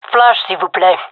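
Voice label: request flash, female; vo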